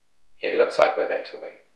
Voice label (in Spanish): Voice Acting es M